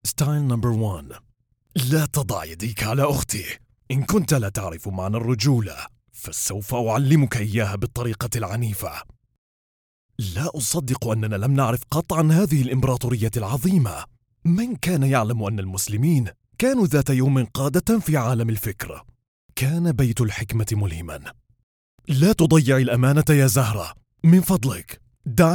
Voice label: arabic animation